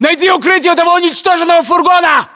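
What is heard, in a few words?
order cover van destroyed, battlechatter, Russian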